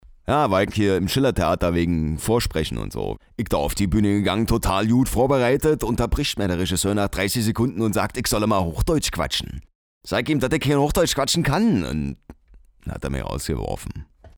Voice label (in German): dialekt berlinerisch; LOFT VOICES